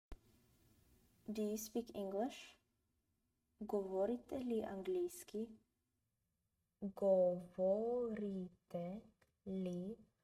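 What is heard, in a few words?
"Do you speak English?", Bulgarian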